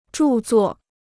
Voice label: "著作", Chinese

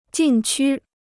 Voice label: "禁区", Chinese

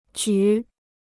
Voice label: "举", Chinese

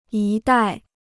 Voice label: "一代", Chinese

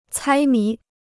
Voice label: "猜谜", Chinese

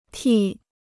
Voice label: "剃", Chinese

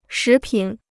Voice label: "食品", Chinese

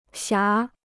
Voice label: "霞", Chinese

